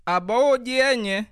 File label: long time no see, bassa